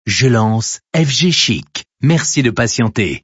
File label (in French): lancement radio; fgchic